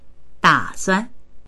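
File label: "da3suan", Mandarin Chinese; 打算; ～するつもりだ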